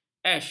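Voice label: "ash", Malay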